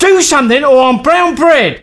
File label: Dying, male, voice commands; svencoop